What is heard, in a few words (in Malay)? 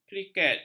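"kriket", Malay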